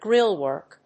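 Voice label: "grillwork", English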